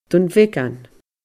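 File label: "Dun Bheagan", Scottish Gaelic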